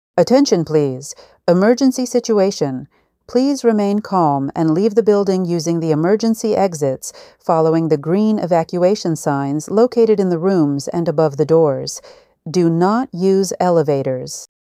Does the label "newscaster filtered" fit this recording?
No